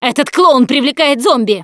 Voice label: see clowns, russian; Producer